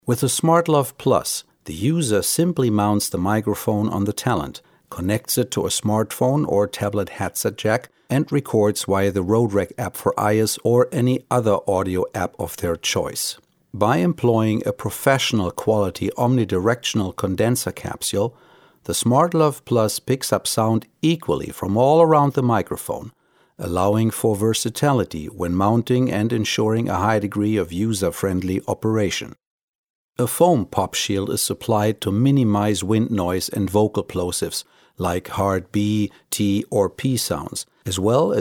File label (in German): Englischer Sachtext; Smartlav+